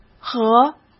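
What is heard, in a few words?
"河" Mandarin Chinese; chinese flashcards; hsk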